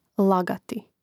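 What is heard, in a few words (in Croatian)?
"lagati", Croatian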